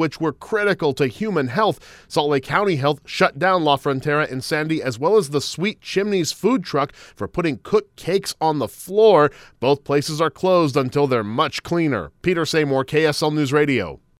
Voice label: DIRTY RESTAURANT VOICE PSA